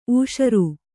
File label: "ಊಷರು", Kannada